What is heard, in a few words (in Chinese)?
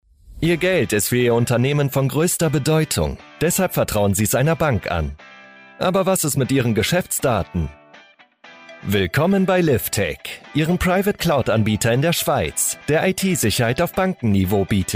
德语男声